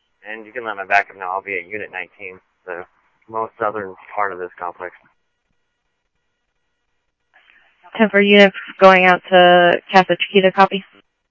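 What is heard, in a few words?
Police Department, scanner audio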